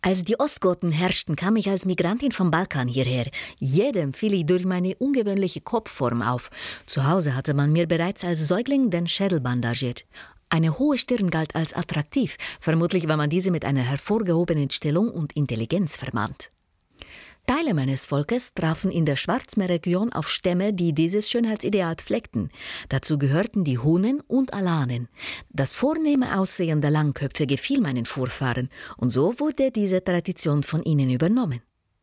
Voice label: rumaenischer akzent

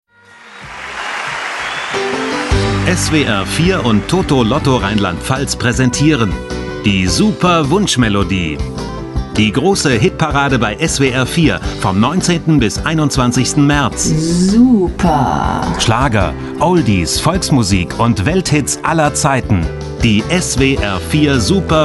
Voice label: trailer kurz

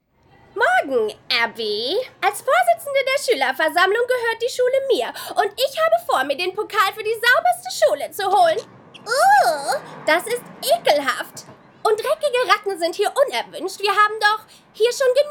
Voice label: zeichentrick synchron

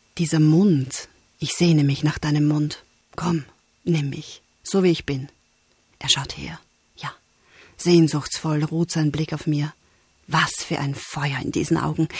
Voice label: werbung pils

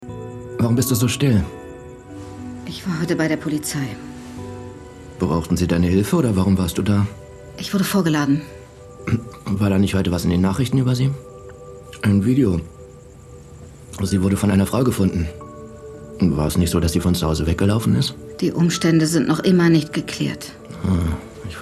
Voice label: synchron ehegesprach

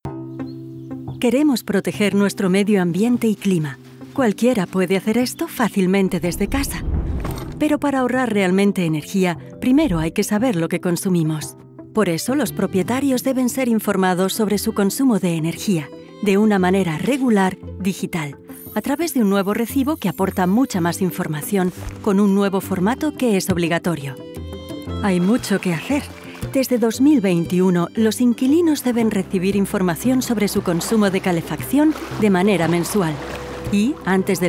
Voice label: imagefilm

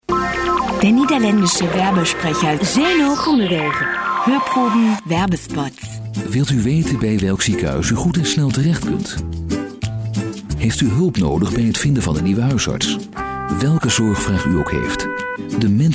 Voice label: niederlandische sprecher werbung radio tv

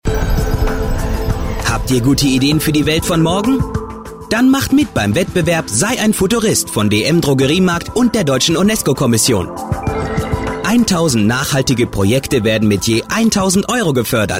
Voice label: werbung funk us; dm; us bowl

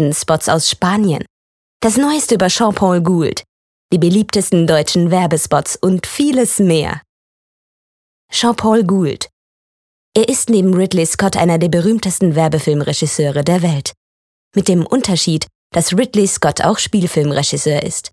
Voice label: werbung feature

